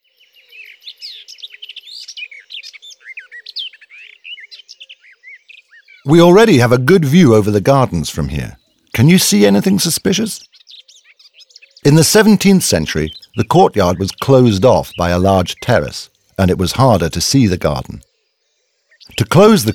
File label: audioguide en enfant; modave